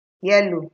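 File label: "yellow", Nigerian Pidgin, female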